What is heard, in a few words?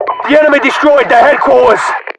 announcer radio destroyed